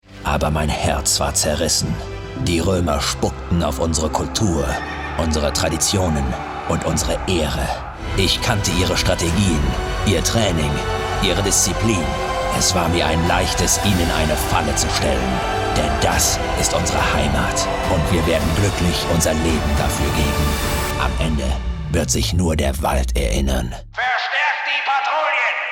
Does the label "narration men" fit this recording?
No